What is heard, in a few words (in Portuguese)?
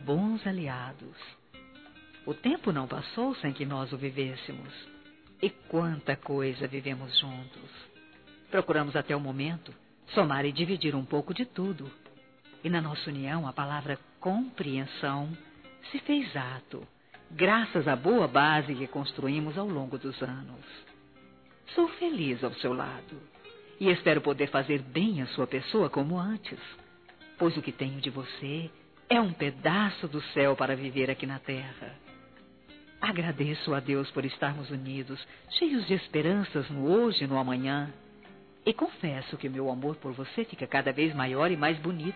Bodas de Ouro Feminino, Telemensagens; Bodas e Casamento